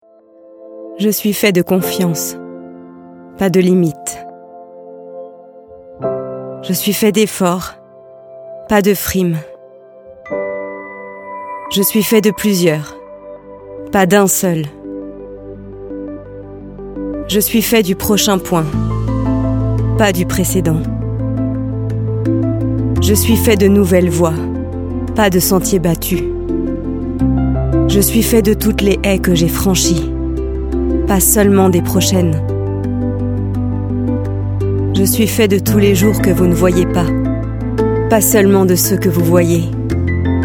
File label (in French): voix off pub